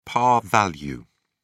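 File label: "par value", British English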